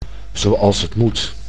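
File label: "zoals het moet", Dutch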